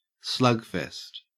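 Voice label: "slugfest", Australian English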